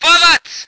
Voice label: voice ger vehicle